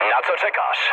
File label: attack position mood low, speech pol; War Thunder